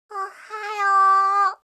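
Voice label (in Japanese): "ohhayoo", Japanese; aisatsu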